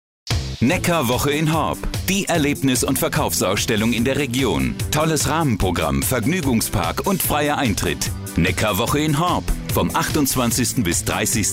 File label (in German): Radiospot; Neckarwoche